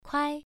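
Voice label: "kuai", Mandarin Chinese, first tone, pronunciation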